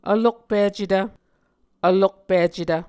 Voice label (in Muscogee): "vlokpicetv", Muscogee